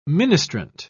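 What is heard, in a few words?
"ministrant", British English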